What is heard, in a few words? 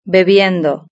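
"bebiendo", Spanish; verb-conjugator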